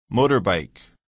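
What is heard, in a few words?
"motorbike", English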